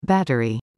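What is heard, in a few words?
"battery", English word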